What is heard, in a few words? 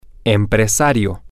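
business person, spanish, male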